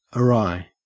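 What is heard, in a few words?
"awry", Australian English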